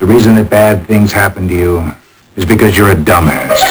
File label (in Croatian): glas, GSM 44 Khz, mono